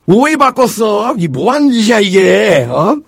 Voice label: negative vocalization; voice packs